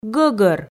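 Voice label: "гӧгӧр", Komi, female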